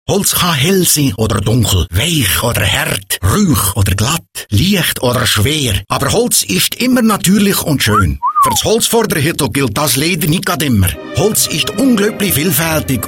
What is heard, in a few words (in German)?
radio spot; aw holzbau; vielseitig